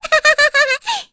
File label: Vox Happy; kingsraid; voices heroes; en